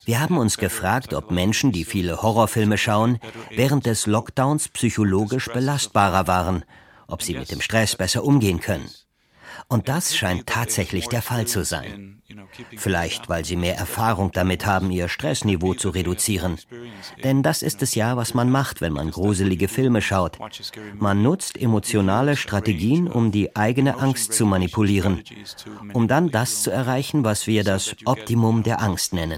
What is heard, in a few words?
Voice Over